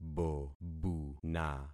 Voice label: "bobuna", concat syllables; Affixing experiment

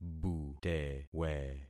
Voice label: "butewe", concat syllables; Affixing experiment

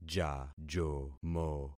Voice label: "jajomo", concat syllables; Affixing experiment

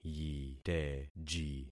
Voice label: "yiteji", concat syllables; Affixing experiment

